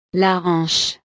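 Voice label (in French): "la hanche", French; partes del cuerpo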